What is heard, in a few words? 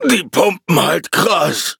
defeat, de; cookie run